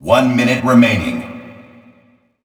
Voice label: vce one min left